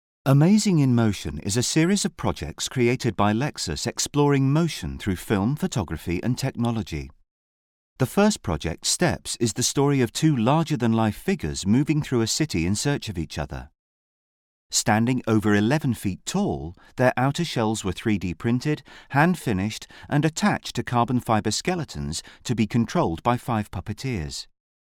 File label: corporate